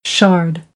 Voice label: "shard", American English

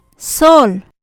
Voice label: "sóol", Maya; cáscara

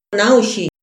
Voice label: "nauxi", Mazahua

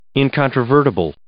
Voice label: "incontrovertible", English

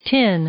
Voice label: "tin", English; i-ɪ; noun

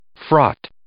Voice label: "fraught", English

